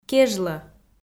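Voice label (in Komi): "кежлӧ", Komi, female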